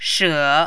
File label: "she3", Mandarin